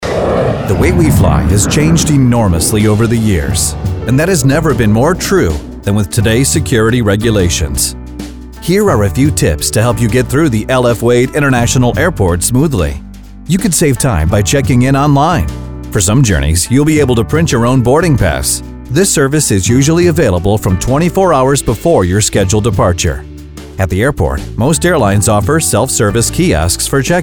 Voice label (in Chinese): 外籍男 Narration; Airport-Regulations